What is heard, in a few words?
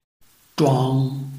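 "zhuang1", Mandarin Chinese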